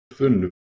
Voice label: "thunnu", Proto Germanic